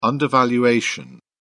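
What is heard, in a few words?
"undervaluation", British English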